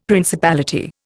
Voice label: "principality", Indian; Dictionary

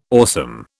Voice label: "awesome", British

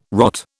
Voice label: "rot", British

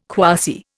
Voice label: "quasi", Indian

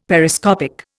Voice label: "Periscopic", Indian; Dictionary

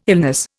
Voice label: "illness", Indian

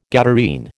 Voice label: "Gadarene", American

